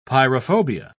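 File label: "pyrophobia", British English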